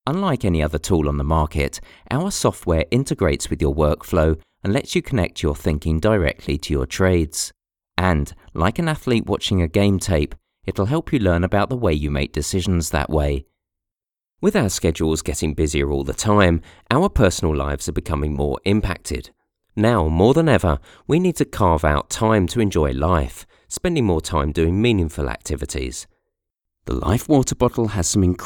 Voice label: HOME STUDIO EXAMPLE